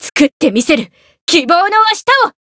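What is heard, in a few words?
Voice variable chant Japanese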